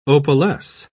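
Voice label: "opalesce", British English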